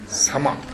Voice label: "sama", minamiyamagata